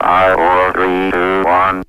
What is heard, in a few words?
5 4 3 2 1 announcer CUT; numbers and letters